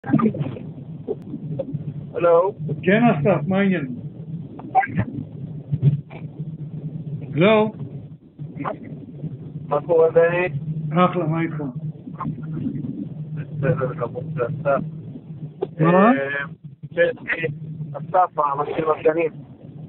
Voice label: Call recording